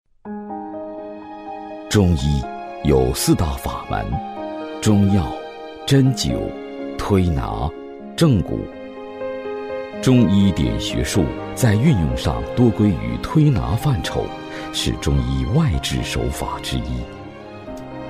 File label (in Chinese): 男 医学宣传 磁性抒情; 中医